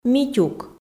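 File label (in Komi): "митюк", Komi, female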